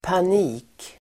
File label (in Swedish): "panik", Swedish